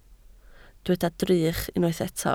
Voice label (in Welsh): "drych", Welsh